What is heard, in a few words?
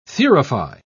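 "thurify", British English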